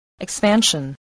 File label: "expansion", voice